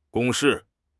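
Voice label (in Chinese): "公式", Mandarin Chinese; HSK6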